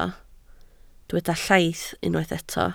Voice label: "llaith", Welsh